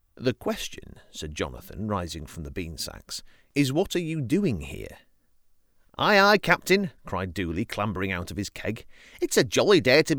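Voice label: northern, accents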